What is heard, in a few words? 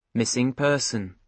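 "missing person", British English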